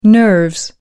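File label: "nerves", British English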